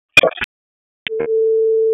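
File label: Fire Department Alert, p25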